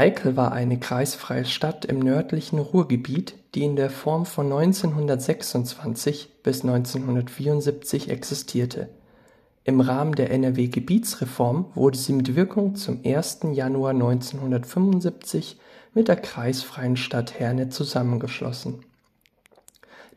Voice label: OnePlus Nord CE2 5G Audio Aufnahmen

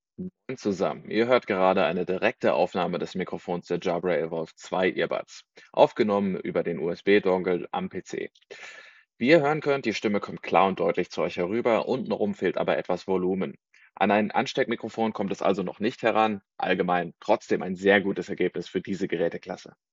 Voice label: Jabra Evolve2 Testaufnahme

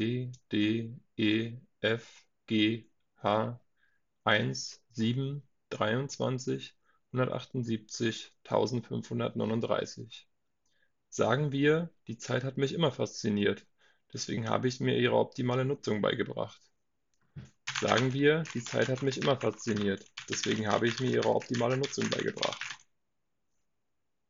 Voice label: Mikrofontest Sennheiser PXC 550 I via Creative BT W3

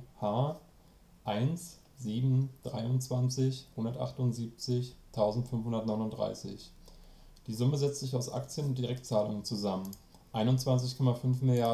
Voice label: Creative Live Cam Sync 1080p via USB Verbindung

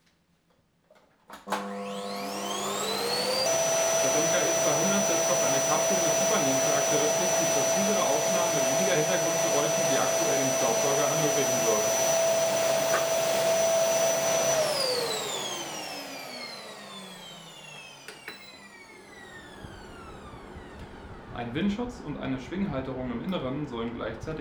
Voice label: Aufnahme Internes Mikrofon Fujifilm X T30

